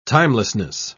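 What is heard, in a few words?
"timelessness", British English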